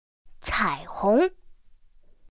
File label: "cǎihóng", pinyin; pinyin2; pinyinji